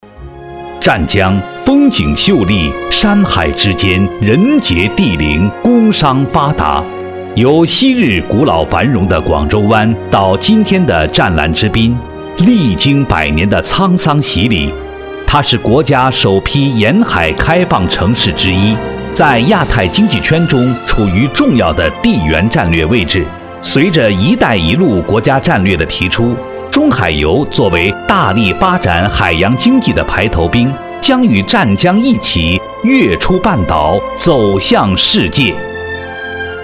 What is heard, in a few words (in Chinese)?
企业宣传深沉大气